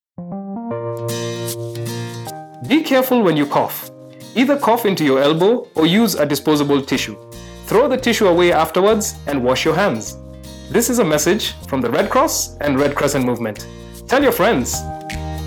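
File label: Covid19 Radio Spots English Male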